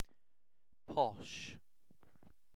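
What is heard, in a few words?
"posh", British English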